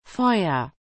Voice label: "Feuer", German